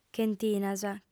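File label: "chentinas", female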